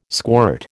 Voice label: "squirt", American